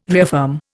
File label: "reaffirm", Indian